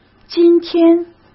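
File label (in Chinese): "今天", Mandarin Chinese; chinese flashcards; hsk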